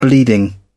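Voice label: "bleeding", British English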